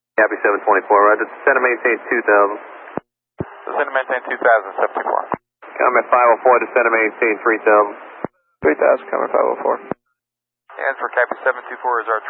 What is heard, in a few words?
Boston Approach; Kbos